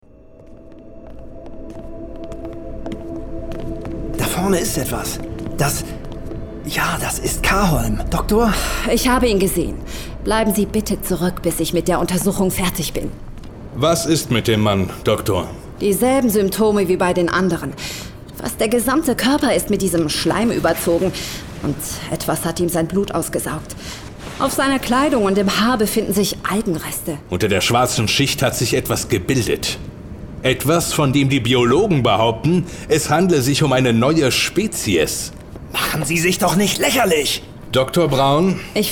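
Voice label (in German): Schauspiel, Sachlich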